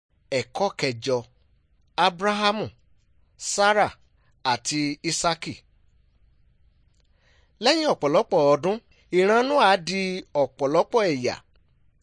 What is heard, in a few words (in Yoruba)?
good news yoruba